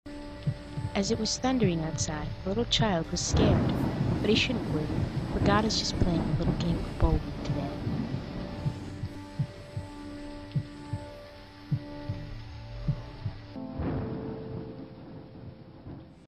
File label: Thunder voice; reel